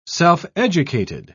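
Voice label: "self-educated", British English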